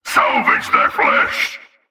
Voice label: Male Chokepoint Line, dialogue; NPC; Heist Cultist Chokepoint